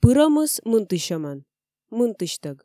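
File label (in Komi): пырӧмыс мынтысьӧмӧн, мынтысьтӧг, Komi, female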